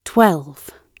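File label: "twelve", British English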